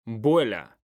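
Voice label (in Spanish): "vuela", Spanish, male; mekanta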